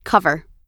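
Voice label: "cover", American English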